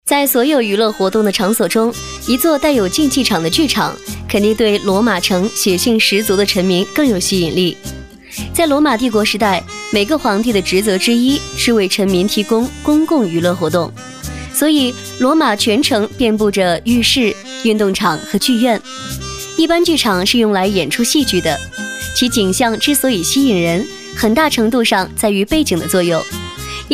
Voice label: 女,懒人听书

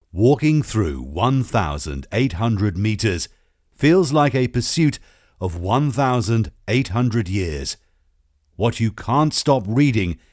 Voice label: EN配音 试音; 英国广播公司电台、电视台和英式英语配音

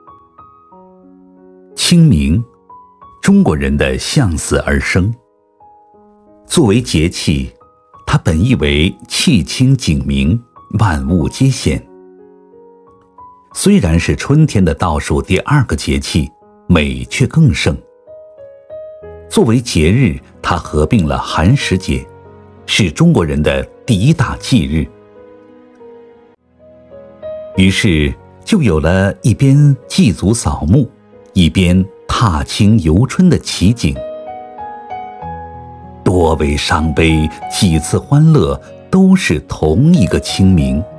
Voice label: 男 宣传片 传统文化

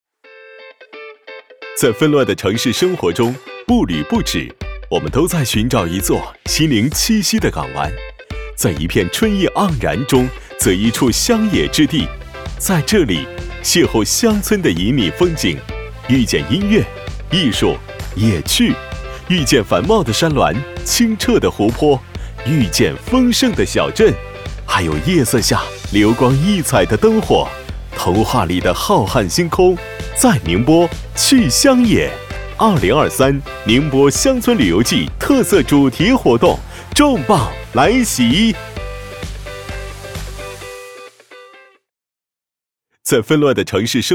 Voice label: 男 宣传 乡村旅游季 活力青春

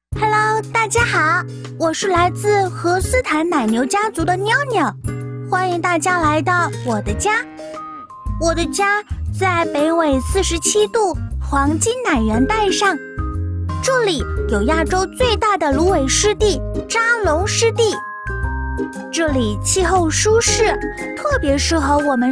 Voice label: 女 童声模仿 奶粉; 飞鹤奶粉

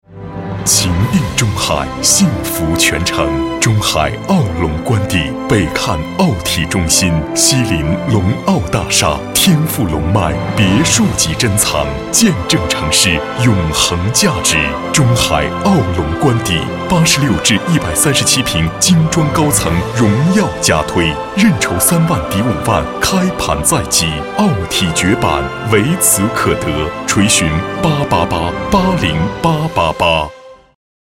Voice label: 男 广告, 大气浑厚; 中海傲龙观邸定

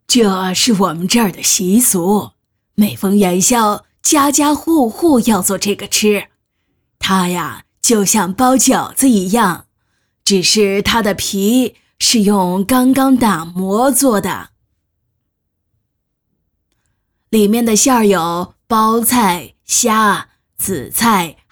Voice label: 女, 模仿, 角色, 男女老人; 老人案例

